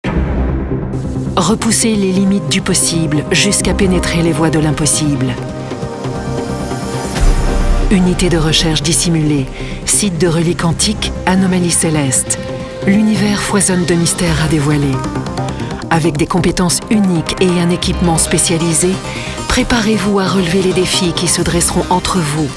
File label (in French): voix off feminine france; eve online pack de contenu explorateur